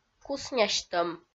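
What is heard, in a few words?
"куснясьтӧм", Komi, female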